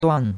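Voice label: "duan4", Chinese; Cours de Chinois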